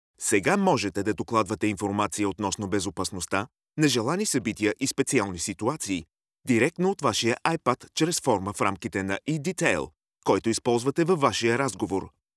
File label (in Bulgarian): bulgarian e-learning